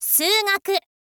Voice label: "suugaku", info girl voice